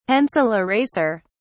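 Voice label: "pencil eraser", English, British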